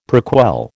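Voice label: "prequel", American English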